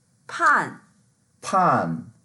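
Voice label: "pan4", Mandarin Chinese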